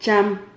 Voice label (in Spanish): "cham", Silabas